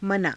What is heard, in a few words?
"manaa", Hindi